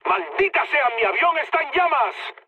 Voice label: bomb success, mood high, speech; War Thunder